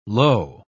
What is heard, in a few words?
"low", pronounce